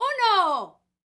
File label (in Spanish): FEM UNO, MEXICAN, World Voices; ILIO; Vocal Planet VOL-5